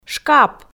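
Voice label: "шкап", Komi, female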